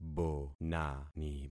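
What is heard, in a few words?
"bonani", concatenated syllables; Affixing experiment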